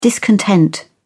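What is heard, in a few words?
"discontent", English, British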